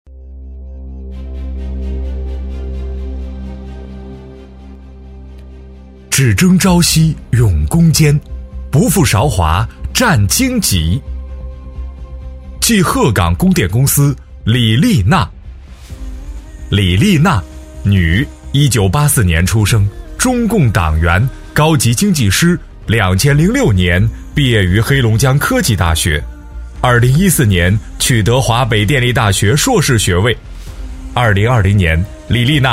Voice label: 男 专题 大气抒情 浑厚 人物介绍

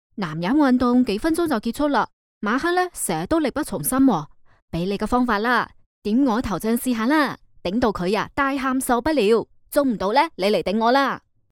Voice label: 粤语信息流

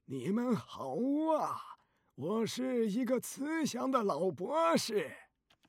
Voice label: 男 角色 慈祥老人 男

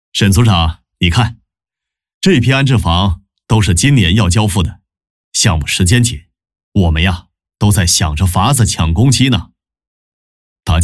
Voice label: 男, 角色 青年中年; 小王沈组长

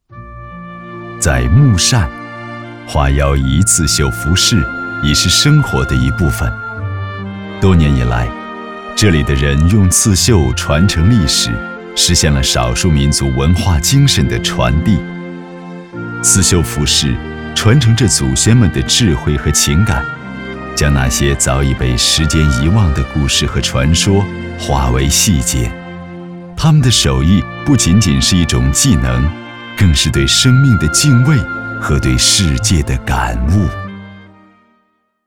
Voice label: B男, 纪录片; 刺绣

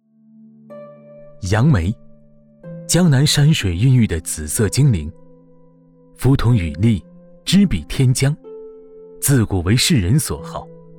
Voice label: 男 美食 解说 舌尖纪录片; 1314°的鲜甜

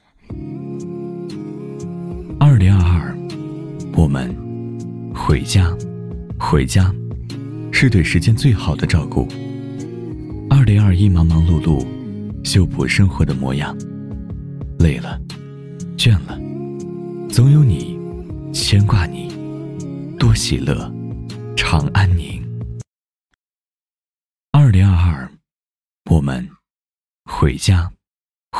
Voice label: 男, 广告-走心旁白 年货节

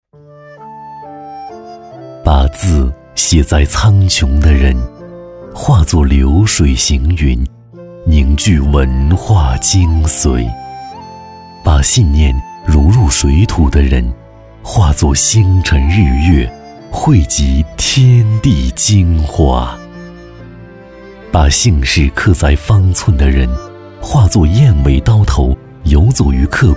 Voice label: 男 旁白

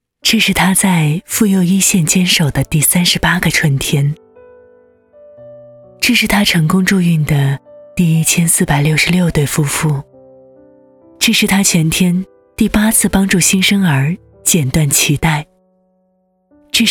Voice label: 女 专题片; 春天

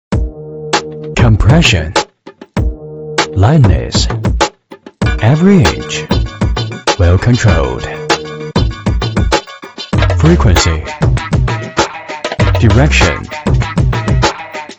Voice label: 男 英语 品质广告 按摩仪; Hezheng按摩仪

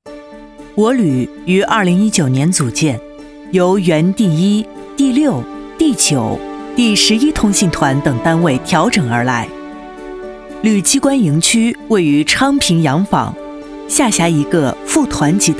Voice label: 女 专题片

